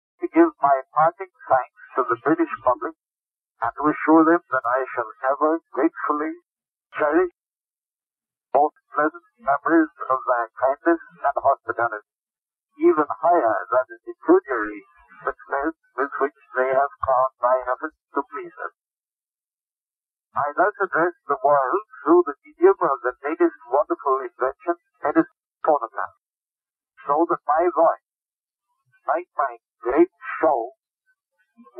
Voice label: 1890 cylinder